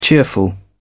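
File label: "cheerful", British English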